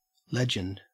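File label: "legend", Australian English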